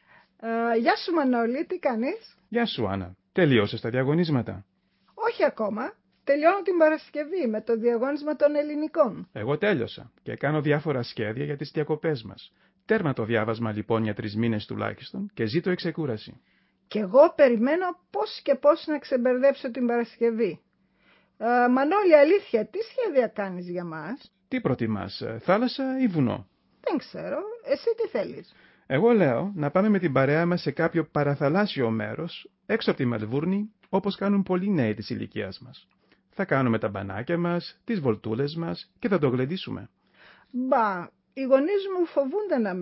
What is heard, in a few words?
greek text